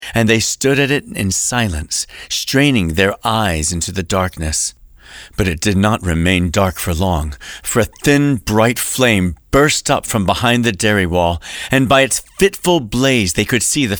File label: Audiobook; Out of the Pit; best stories for dads